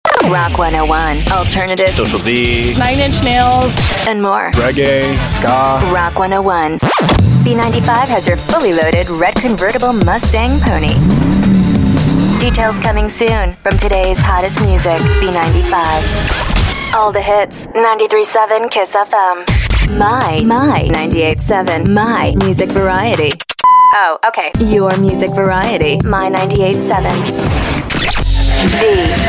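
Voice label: radio imaging sample US English female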